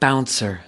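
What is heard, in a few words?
"bouncer", US English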